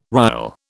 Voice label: "rial", British